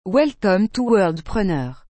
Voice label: welcome to wordpreneur french